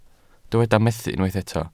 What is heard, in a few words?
"methu", Welsh